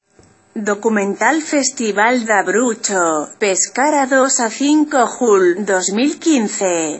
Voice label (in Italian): spagnolo